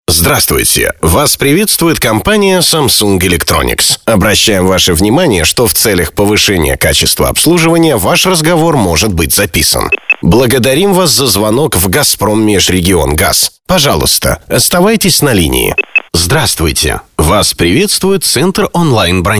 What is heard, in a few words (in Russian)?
ivr